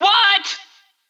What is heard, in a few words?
Extreme Yeow